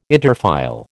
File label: "interfile", American